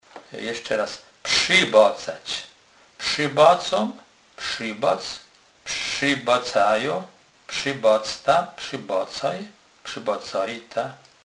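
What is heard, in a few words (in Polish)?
"przybacac", Kurpie dialect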